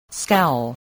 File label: "scowl", english spelling